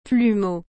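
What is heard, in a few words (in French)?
"plumeau", French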